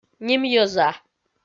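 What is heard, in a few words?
"нимйӧза", Komi, female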